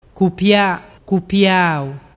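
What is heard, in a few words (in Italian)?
"cupiapiau", dialect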